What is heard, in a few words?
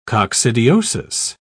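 "coccidiosis", British English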